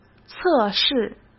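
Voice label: "测试", Mandarin Chinese; chinese flashcards; hsk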